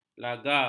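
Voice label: "laga", Malay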